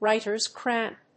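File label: "writers cramp", English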